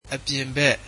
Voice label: outside, burmese